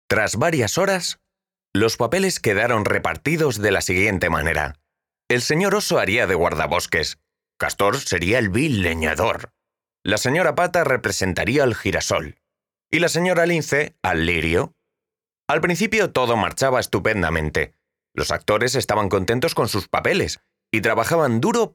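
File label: EU Spanish VO Male Narration Acting AudioBook for kids; La obra de los animales del bosque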